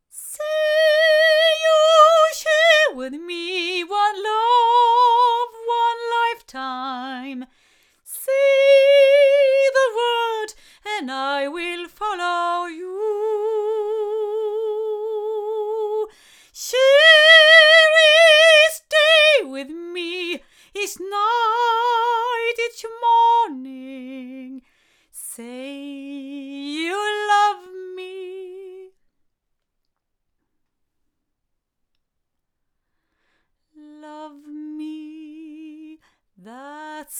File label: ADK A48 8 female, microphones